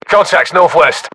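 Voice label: threat infantry northwest, British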